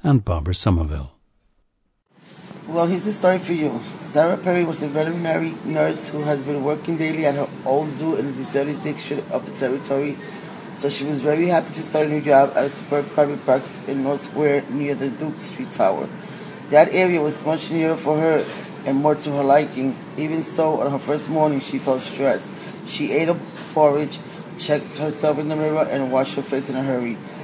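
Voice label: new york, International Dialects of English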